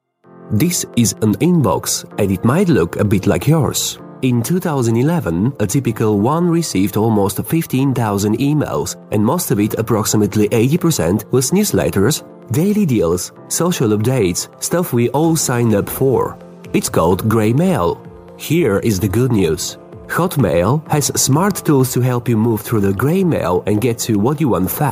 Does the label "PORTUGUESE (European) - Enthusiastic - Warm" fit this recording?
No